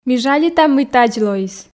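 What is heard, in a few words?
Komi, female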